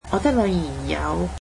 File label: cantonese my daughter has two cats